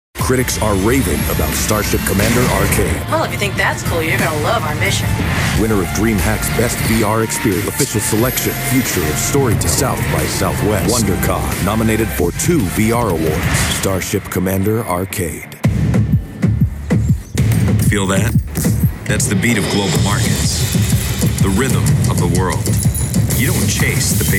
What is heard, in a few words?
Commercial